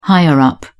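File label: "higher-up", English, British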